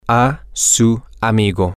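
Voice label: to your friend, spanish, male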